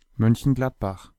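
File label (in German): "Mönchengladbach", German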